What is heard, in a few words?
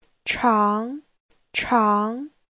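"chángcháng", Mandarin Chinese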